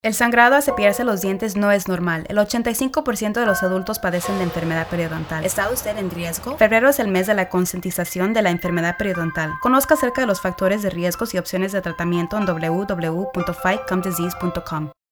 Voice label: PSA Spanish